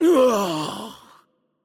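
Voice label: Death, Japanese; ja JP; VO; bloom minigame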